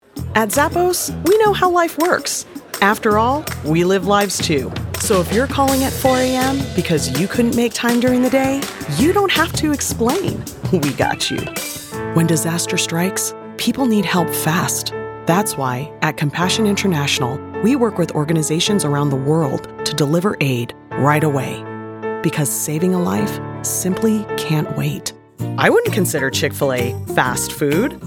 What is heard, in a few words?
Commercial